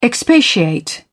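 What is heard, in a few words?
"expatiate", British English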